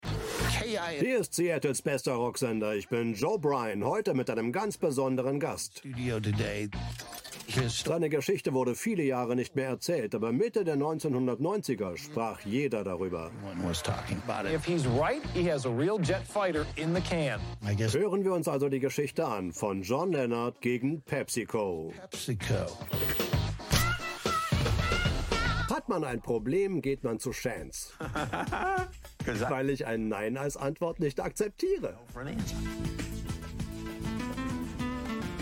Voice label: voiceover; pepsi wo ist mein jet

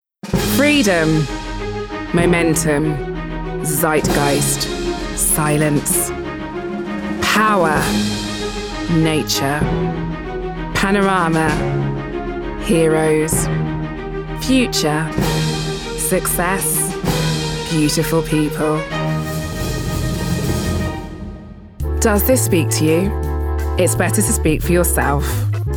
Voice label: Commercial (Werbung), English UK; Autohaus; LOFT VOICES

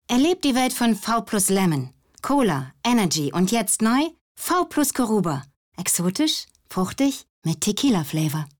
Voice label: Commercial frisch Getraenk

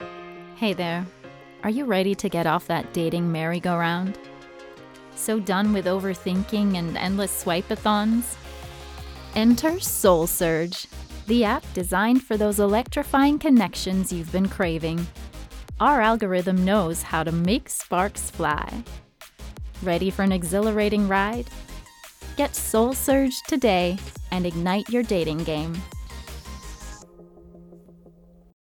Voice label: Dating App US

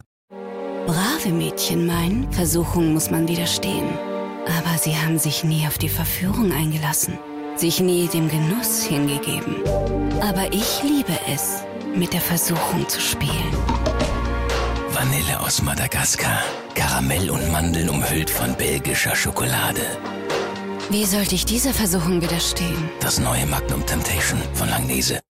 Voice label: commercial; langnese magnum

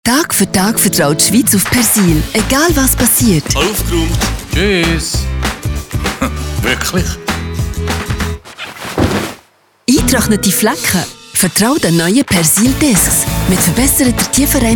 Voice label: TVC; Henkel Persil-Advanced-2021

